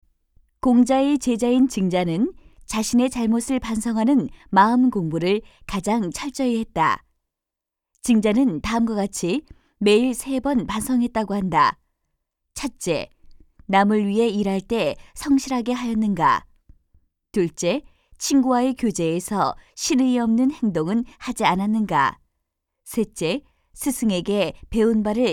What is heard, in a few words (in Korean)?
내레이션; 도덕; 도덕적 성찰